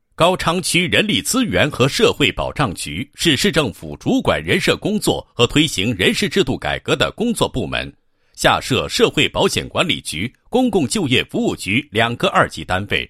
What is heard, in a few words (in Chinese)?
男-政府建设; 高昌区人力资源和社会保障局